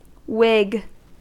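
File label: "whig" English, US; pronunciations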